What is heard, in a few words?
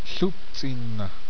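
"yellow", Zuni language; Yellow (North)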